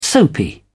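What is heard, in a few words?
"soapy", British English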